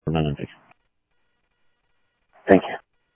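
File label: Sheriff West County, scanner audio trunk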